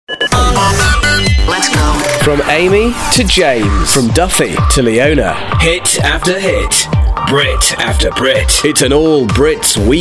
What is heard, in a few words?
languages british